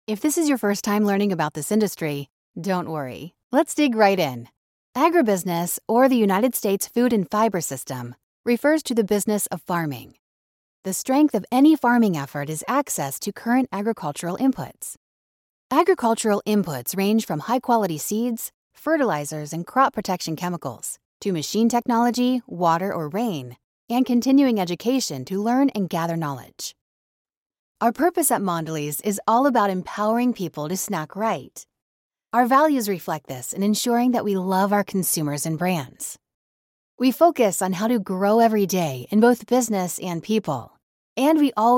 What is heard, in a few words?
eLearning Voice